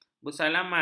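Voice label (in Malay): "bersalaman", Malay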